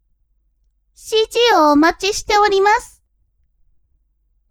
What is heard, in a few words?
woman